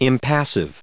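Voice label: "impassive", US English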